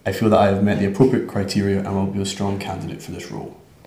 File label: interviewee male filler